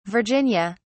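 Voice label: "virginia", English, British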